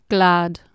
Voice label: "glaed", Anglo Saxon